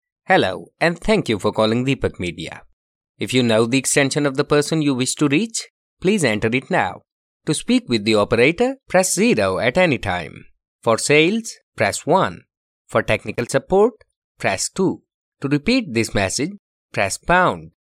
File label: male indian voice over talent upbeat